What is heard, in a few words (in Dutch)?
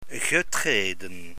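"getreden", Dutch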